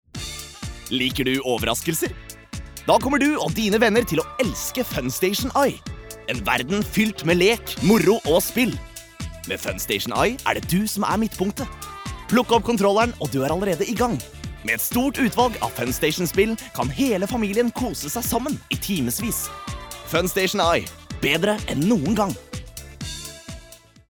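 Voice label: Norwegian cheerful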